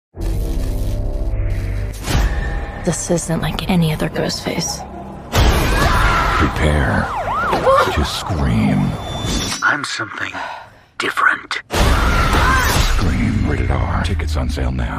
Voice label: TV Spots; scream 6